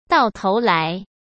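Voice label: "到头来", Mandarin; chinese-flashcards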